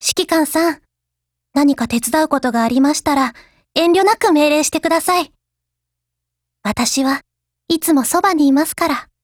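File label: DIALOGUE, Japanese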